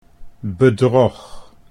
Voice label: "bedrog", Dutch

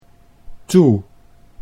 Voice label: "toe", Dutch

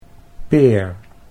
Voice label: "peer", Dutch

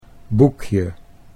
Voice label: "boekje", Dutch

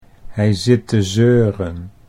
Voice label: "hij zit te zeuren", Dutch

